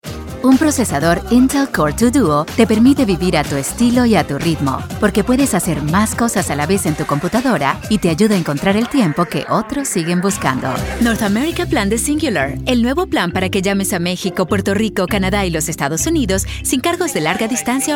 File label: announcer